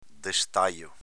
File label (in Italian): "destajo", dialetto veneto